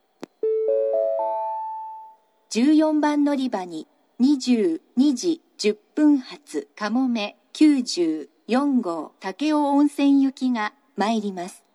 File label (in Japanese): 長崎 西九州新幹線ホーム 自動放送, 14番のりば接近予告放送; かもめ94号 武雄温泉行き